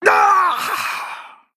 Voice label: Male Troll Death